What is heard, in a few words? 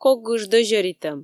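"кок гыж дӧзьӧритӧм", Komi, female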